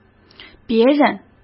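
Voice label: "别人", Mandarin Chinese; chinese-flashcards; hsk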